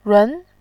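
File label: "run", Mandarin Chinese, second tone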